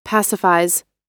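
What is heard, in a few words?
"pacifies", American English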